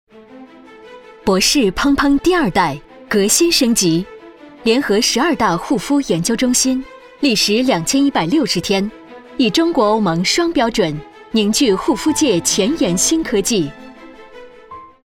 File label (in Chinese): 广告化妆品有力度; 柏氏护肤